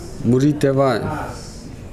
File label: "lalo de vae", Nukuoro; Nukuoro Talking Dictionary